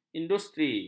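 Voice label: "industri", Malay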